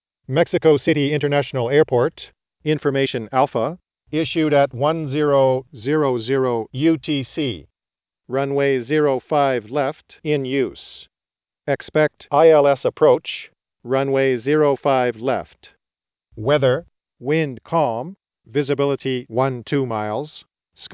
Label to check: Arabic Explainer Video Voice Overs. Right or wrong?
Wrong